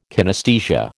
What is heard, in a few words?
"kinesthesia", American